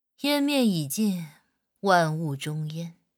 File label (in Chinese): 御姐音